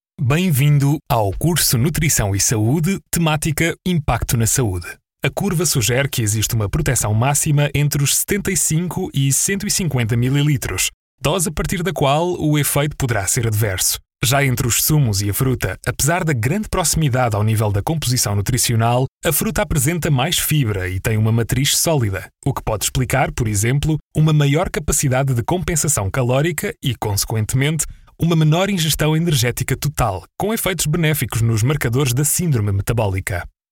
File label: ELEARNING